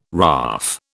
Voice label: "RAAF", British